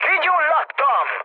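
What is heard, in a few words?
on fire, mood med, Hungarian; War Thunder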